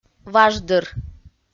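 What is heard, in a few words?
"важдыр", Komi-Zyrian, female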